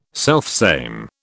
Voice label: "selfsame", British